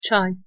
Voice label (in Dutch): "çay", Azerbeidzjaans; thee-in-andere-talen